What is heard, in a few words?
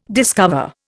"discover", Indian